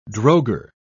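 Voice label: "drogher", British English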